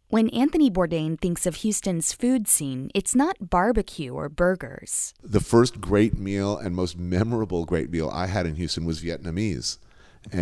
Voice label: spot; Bourdain